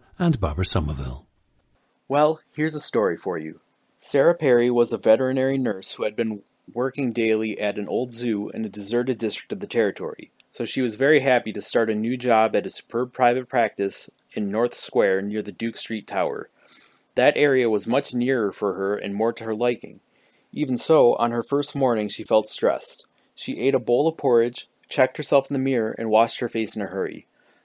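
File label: wisconsin, dialects of English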